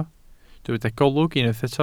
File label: "golwg", Welsh